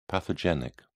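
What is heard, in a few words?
"pathogenic", British English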